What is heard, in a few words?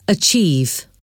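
"achieve", English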